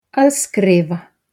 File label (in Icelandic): "skrifa", regla 1